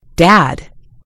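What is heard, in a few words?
"dad", British English; gcompris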